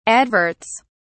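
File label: "adverts", British English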